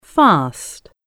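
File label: "fast", English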